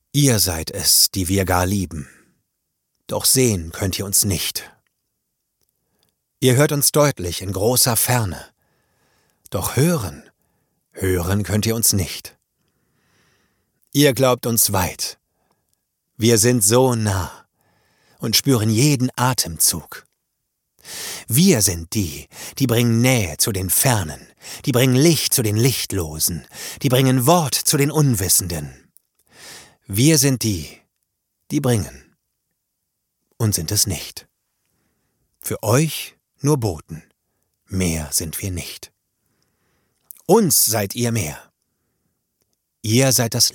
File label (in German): poetisch, maenner